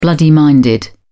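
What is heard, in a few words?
"bloody-minded", British English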